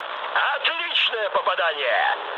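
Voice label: Russian bomb success mood med, speech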